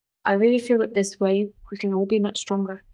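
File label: Voice Acting es, female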